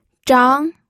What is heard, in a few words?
"zhang2", pinyin